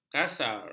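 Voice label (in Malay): "kasar", Malay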